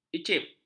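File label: "icip", Malay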